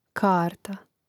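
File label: "karta", Croatian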